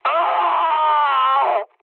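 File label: French damaged pilot mood med; War Thunder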